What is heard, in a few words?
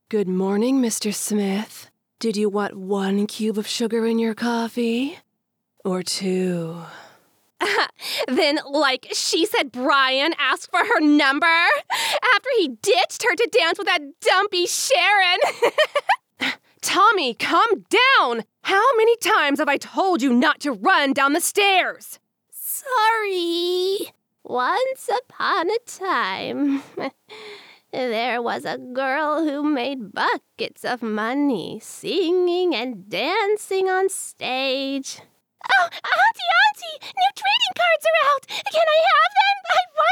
character sampler